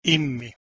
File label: "immi", Proto Germanic